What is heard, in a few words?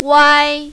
"wai1", mandarin, female